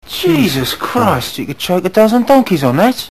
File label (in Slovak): sms hlásky en